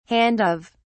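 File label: "handoff", British English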